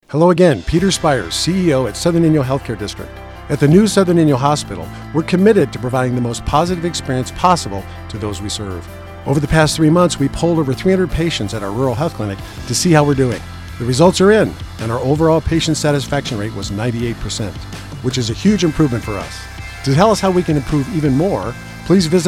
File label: Radio ad